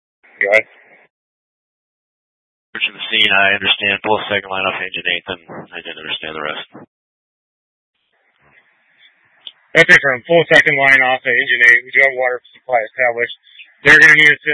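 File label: Incident Tac 1, Scanner audio recording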